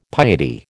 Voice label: "piety", American

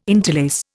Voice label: "interlace", Indian

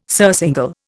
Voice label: "surcingle", Indian

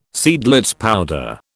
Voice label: "Seidlitz powder", British

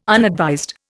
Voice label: "unadvised", Indian

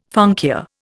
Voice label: "funkia", Indian

